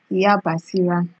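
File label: "iyabasira", Nigerian Pidgin, female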